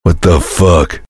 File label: soldier jeers